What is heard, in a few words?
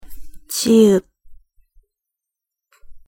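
"ㅈ", Korean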